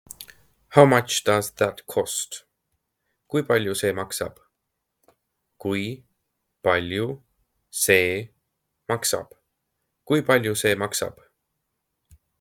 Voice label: How much does that cost, estonian; the talking estonian phrasebook

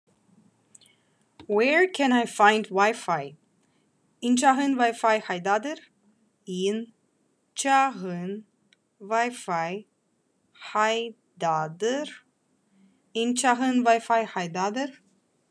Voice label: Khakas Where can I find Wi-Fi

